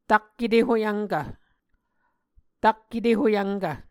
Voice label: "tak etehoyvnkv", Muscogee

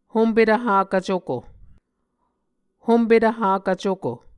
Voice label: "hompetv hakv cuko", Muscogee

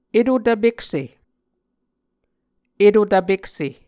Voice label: "eto-tvpekse", muscogee

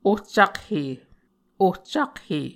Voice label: "ohcakhe", Muscogee

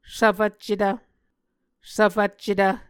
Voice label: "svfvccetv", Muscogee

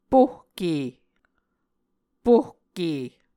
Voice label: "pohke", Muscogee

